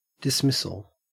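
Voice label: "dismissal", Australian English; pronunciations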